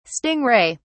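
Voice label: "sting ray", British English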